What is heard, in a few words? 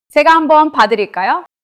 neutral